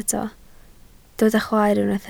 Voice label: "chwaer", Welsh

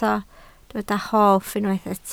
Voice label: "hoff", Welsh

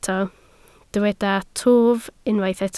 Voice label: "twf", Welsh

